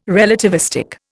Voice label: "relativistic", Indian